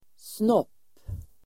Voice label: "snopp", Swedish

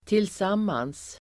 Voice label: "tillsammans", Swedish

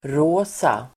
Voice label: "rosa", Swedish

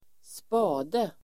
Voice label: "spade", Swedish

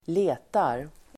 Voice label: "letar", Swedish

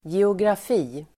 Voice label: "geografi", Swedish

